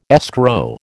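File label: "escrow", American English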